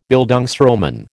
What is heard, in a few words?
"Bildungsroman", American